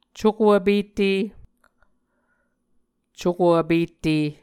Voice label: "cuko vpette", Muscogee